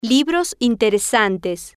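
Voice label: "libros interesantes", Spanish, female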